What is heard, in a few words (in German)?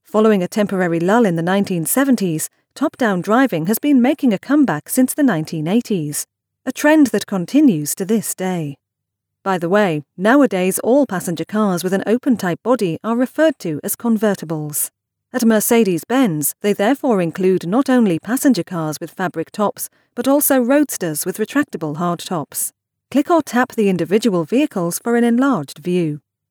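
English UK Kommentar Neutral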